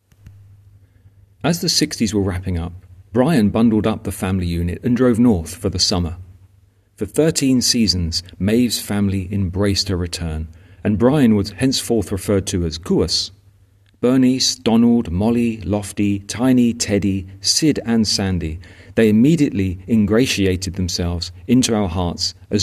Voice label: voiceover